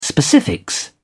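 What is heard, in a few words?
"specifics", English, British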